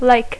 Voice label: "lake", English